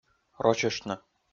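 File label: "рочыштны", Komi, male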